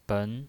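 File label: "ben", Mandarin Chinese, second tone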